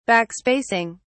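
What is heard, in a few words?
"backspacing", British English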